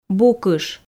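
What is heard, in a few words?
"букыш", Komi, female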